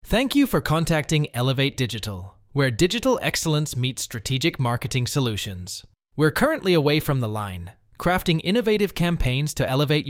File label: voice greeting; Eleven labs